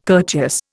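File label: "courteous", Indian English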